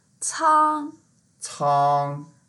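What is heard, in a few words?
"cang1", Chinese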